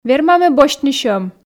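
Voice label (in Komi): верма ме босьтны сьӧм, Komi, female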